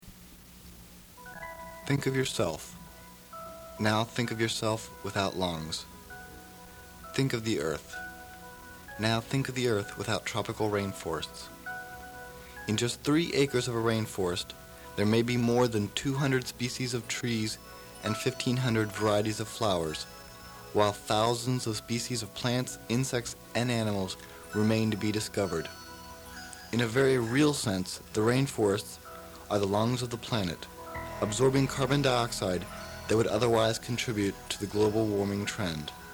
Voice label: PSA; Think Of Yourself; Rainforest Action Network